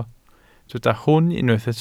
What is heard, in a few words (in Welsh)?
"hwn" Welsh